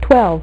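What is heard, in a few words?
"twelve", female; MacCribbage; InterStellar MacCribbage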